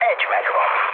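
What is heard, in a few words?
player killed enemy, mood med, Hungarian; War Thunder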